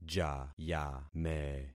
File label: "jayame", concat syllables; Affixing experiment